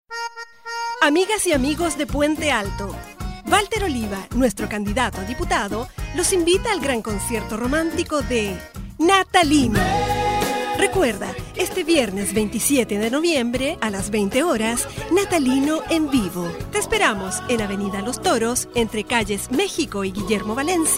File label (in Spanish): Locutora chilena